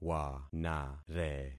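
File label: "wanare", concatenated syllables; Affixing experiment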